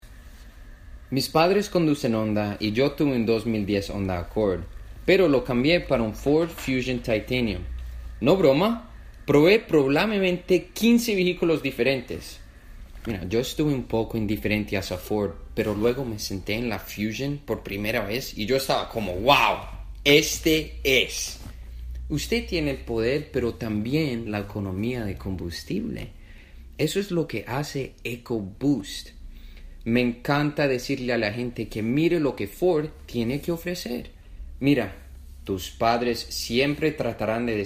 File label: Spanish; Commercial Demo; Voiceover